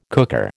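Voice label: "cooker", American English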